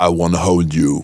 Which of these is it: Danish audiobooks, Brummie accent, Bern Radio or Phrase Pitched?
Phrase Pitched